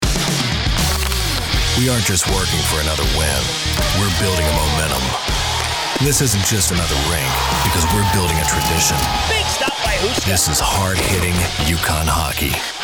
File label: commercial